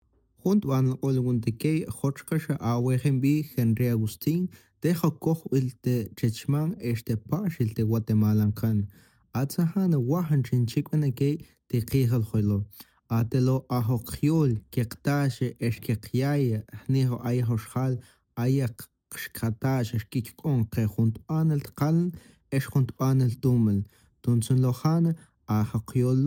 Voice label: mam male